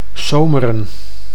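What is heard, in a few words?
"Someren", Dutch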